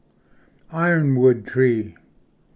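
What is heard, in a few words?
"ironwood tree", English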